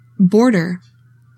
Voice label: "BORDER", english; 3000 words